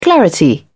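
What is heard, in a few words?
"clarity", British English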